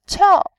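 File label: "qiao", Mandarin Chinese, fourth tone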